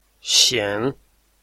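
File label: "xian3", Mandarin Chinese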